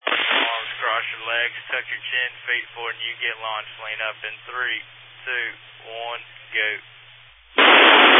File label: Family Radio Service (FRS)